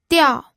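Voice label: "diao", pinyin, fourth tone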